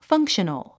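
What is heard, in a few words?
"functional", English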